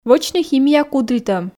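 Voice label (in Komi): "вӧчны химия кудритӧм", Komi, female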